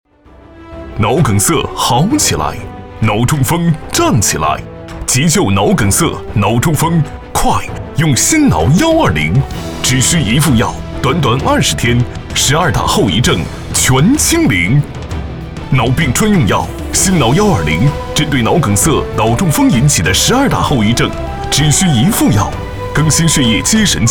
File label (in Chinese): 男, 激情, 电购